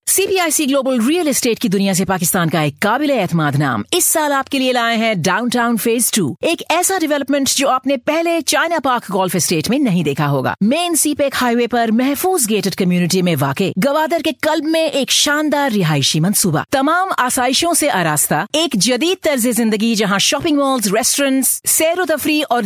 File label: Urdu